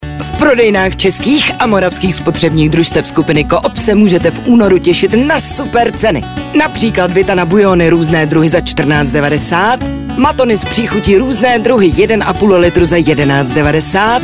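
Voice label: Czech, female, commercial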